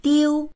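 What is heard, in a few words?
"diu1", Mandarin Chinese; 丢; 失う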